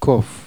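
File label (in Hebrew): "kof", Hebrew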